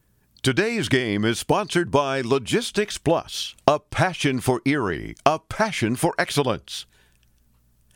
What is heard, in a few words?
football commercial